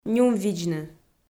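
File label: "нюмвидзны", Komi, female; kpv audio dict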